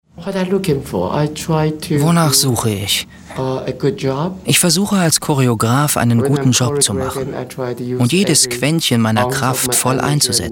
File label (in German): doku; zdf